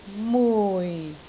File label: "mui4", Canton